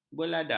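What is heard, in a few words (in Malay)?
"beleda", Malay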